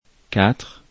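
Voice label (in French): "quatre", French; afrikalan; lecture fr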